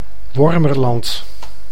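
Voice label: "Wormerland", Dutch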